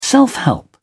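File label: "self-help", British English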